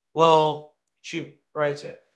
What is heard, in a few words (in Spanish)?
Voice Acting es M